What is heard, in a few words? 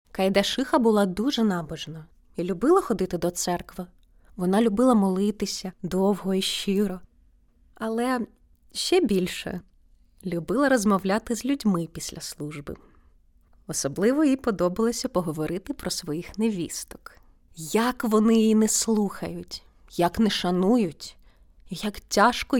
Ukrainian